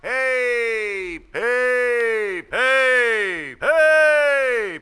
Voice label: hey hey hey hey male

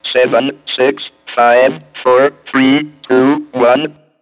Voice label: "1 2 3" robot; numbers and letters

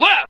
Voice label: "left" male

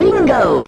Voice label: "bingo", cartoon; Voice; expletives

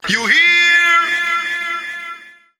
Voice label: you hear, ragga; questions

